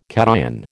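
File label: "cation", American English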